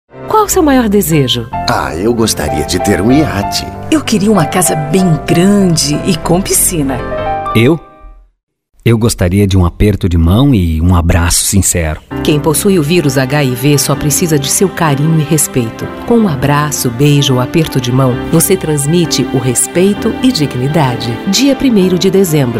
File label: spot; itajai aids